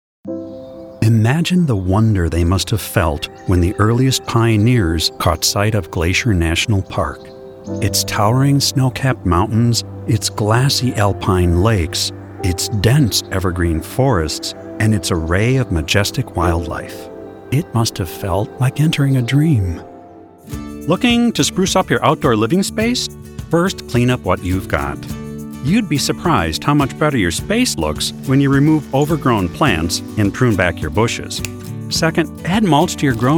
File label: Narration